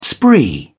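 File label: "spree", English, British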